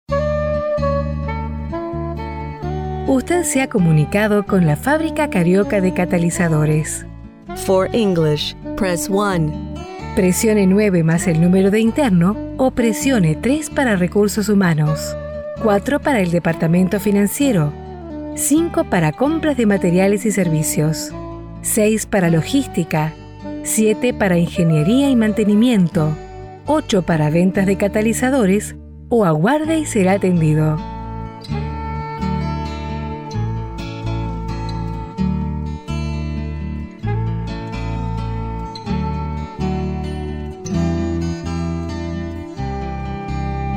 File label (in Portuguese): Gravacao de Atendimento Personalizado, Espanhol